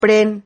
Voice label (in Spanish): "pren", Silabas